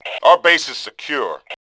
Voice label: base secure, Male; Tribes2

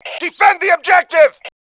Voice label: objective, male; voice

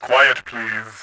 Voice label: quiet, voice; Tribes2

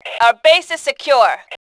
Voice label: secure, Fem; voice

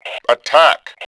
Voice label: attack, voice, Male

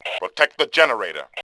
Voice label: Male; Tribes2

